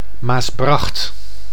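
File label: "Maasbracht", Dutch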